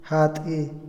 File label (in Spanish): engaño, Otomí, hombre; INALI